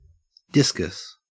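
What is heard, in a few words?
"discus", Australian English; pronunciations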